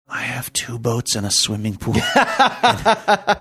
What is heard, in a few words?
quietly saying i have two boats and a swimming pool